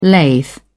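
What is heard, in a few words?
"lathe", British English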